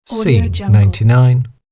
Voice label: "scene 99", human male voice; صحنه 99; human sounds